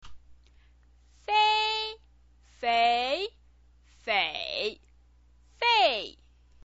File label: "fei", chinese pinyin